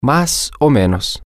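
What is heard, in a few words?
so so, Spanish, male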